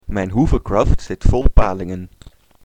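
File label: My hovercraft is full of eels, nl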